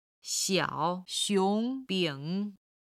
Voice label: "xiao3 xiong2 bing3", Chinese